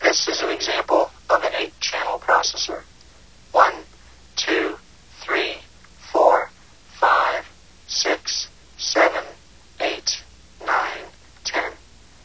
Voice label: CI simulation 8 channels